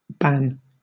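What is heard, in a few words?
"ban", British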